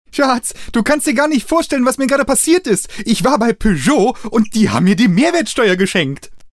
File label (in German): Werbung; Peugeot